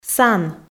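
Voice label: "сан", Komi, female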